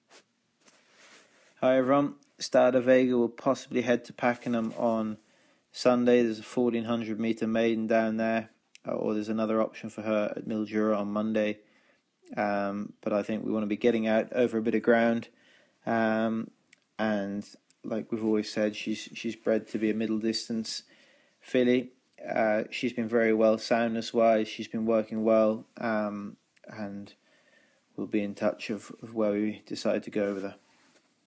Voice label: voice note